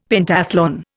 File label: "Pentathlon", Indian; Dictionary